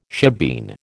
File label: "shebeen", American